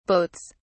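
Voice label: "boats", British English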